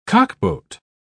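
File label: "cockboat", British English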